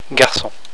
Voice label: "garcon", french